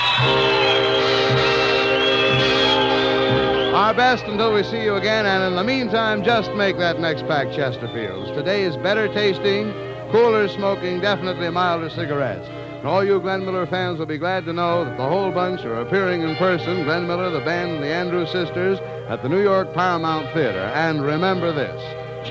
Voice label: Closing Announcements; The Chesterfield Broadcasts; 1939-1940